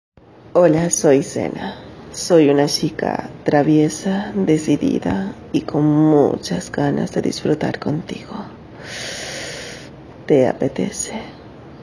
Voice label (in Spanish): Locución